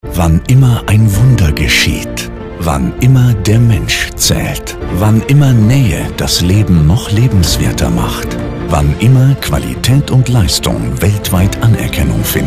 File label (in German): videopraesenation; DEUTSCHE KRANKENHAUSGESELLSCHAFT